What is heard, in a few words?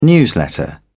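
"newsletter", British English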